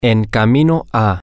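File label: on The Way To, spanish, male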